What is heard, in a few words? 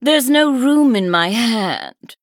Female San'layn Emote error hand full